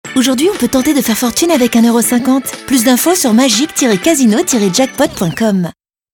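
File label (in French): magic bonne nouvelle, voix off; Casino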